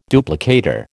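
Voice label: "duplicator", American English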